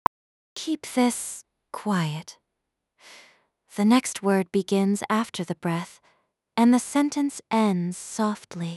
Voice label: whisper